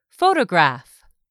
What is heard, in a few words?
"PHOTOGRAPH", English; 3000 words